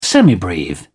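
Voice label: "semibreve", English, British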